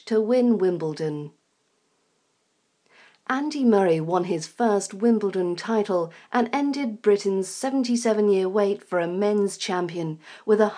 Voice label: news article British accent pronunciation practice